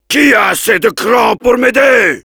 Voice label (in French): Heavy help me defend, fr